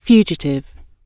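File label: "fugitive", British English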